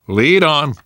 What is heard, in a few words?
lead on, survivor voice namvet